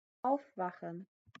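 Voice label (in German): "aufwachen", German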